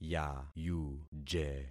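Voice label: "yayuje", concatenated syllables; Affixing experiment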